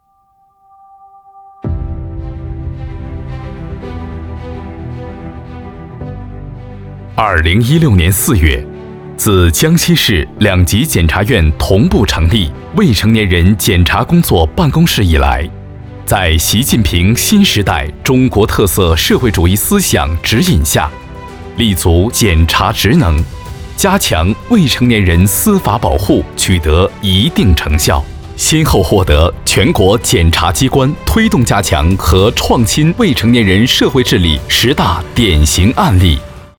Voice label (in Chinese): 宣传片,检察院